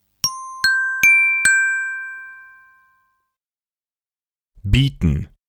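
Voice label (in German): "beaten", German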